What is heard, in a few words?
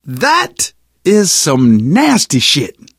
reaction disgusted, survivor voice, manager; source engine; left 4 dead 2